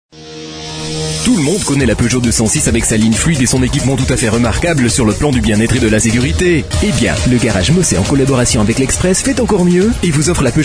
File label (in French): pub voix off